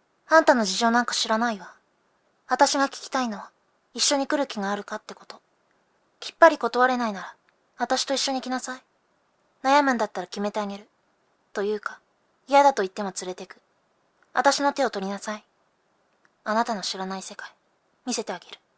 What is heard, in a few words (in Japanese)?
セリフ少女